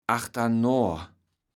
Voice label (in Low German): "achternoa", kaevels platt